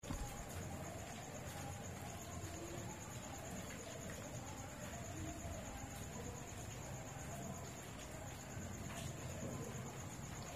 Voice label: Evening Church Predications in the Rain Agoe Nyive Togo